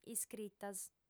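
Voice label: "iscritas", Sardinian, female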